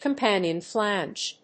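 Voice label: "companion flange", English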